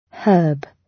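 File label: "herb", British English; Time, history; Thời gian, lịch sử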